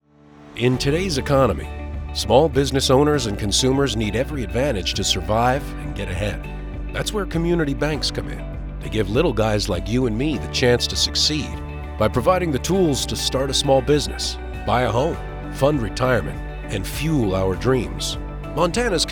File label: radio ad; icba; montana